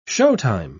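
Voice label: "showtime", British English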